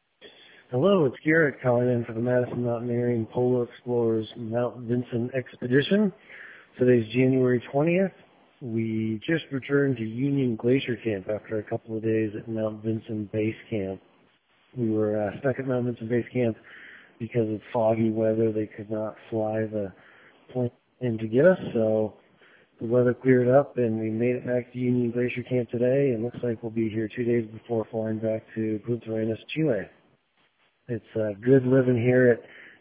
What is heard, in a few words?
voicemail